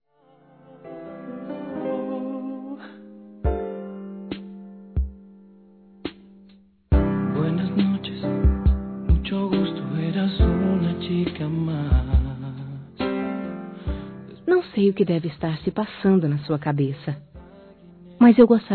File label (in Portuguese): Não Existem mágoas Feminino, Românticas; Telemensagens